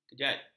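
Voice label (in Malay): "kejat", Malay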